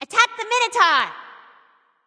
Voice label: vox attack; smite; scylla

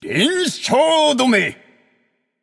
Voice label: alert notify kill streak breaker, japanese; caster

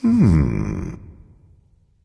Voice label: thinking; smite; ra

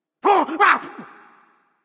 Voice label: fear; cerberus

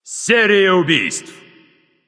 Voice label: kill streak, russian; caster

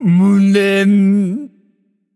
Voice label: notify slain you, japanese; caster

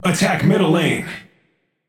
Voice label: vox attack; smite; ra; voicepack

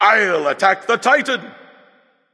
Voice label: vox self attack; smite; hades

